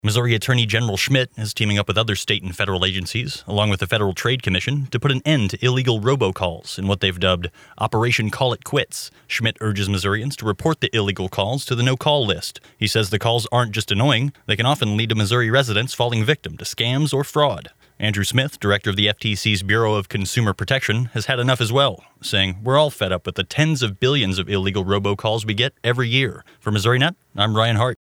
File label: robocall